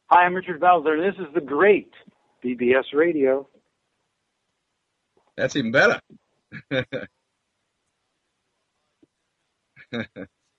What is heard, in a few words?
bbs radio promo; richard belzer